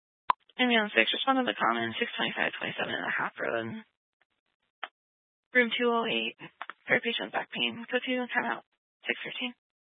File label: Fire Dispatch